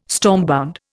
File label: "stormbound", Indian